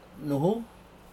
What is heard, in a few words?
"nuhu", floating rock, Aneityum